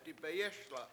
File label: "dibeyES7la" I shot at him, Washo